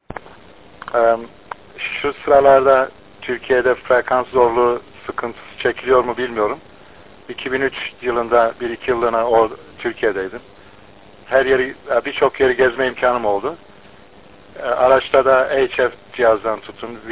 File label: QSO; KONFERANS ODASINA SATASMALAR